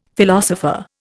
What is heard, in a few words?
"philosopher", Indian; Dictionary